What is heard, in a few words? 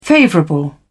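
"favourable", British English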